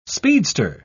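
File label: "speedster", English, British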